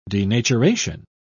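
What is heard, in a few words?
"denaturation", British English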